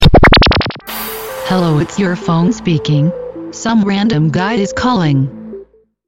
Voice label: Speaking phone